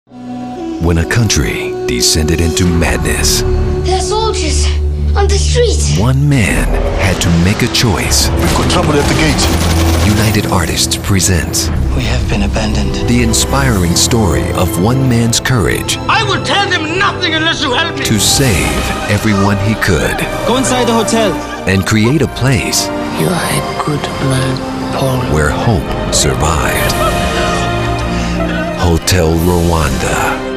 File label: english american movie trailers